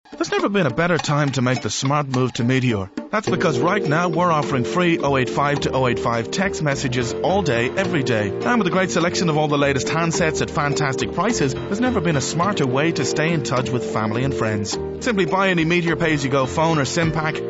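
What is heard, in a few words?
Irish English, male, voice over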